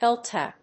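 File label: "heeltap", English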